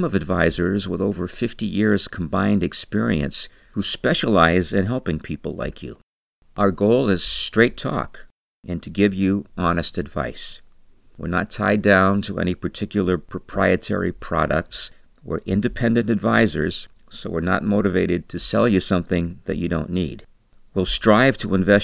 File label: retirement conversational